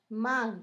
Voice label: camisa, Zapoteco, San Pedro Mártir; Objetos; INALI

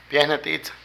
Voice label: Respetar, Zapoteco de Petapa; Vocabulary; Políticas

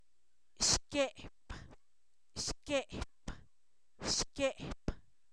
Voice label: Zapoteco, san miguel albarradas; ombligo; cuerpo; INALI

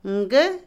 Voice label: Otomí, mujer; carne; INALI

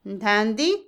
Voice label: "visualizar", Otomí, mujer; Emociones; Vocabulary; INALI

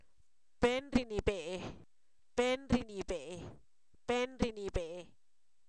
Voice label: Zapoteco, san miguel albarradas; patrón; humano; INALI

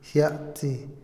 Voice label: "brillante", Otomí, hombre; Percepción; INALI

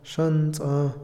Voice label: puntiagudo, Otomí, hombre; INALI

